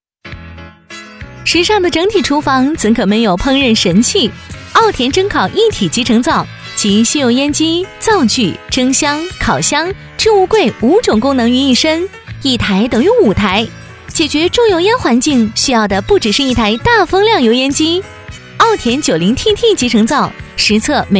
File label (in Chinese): 女 专题 轻松介绍 女